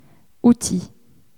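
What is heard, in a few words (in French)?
"outil" French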